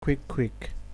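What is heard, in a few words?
"quick quick", Nigerian Pidgin, male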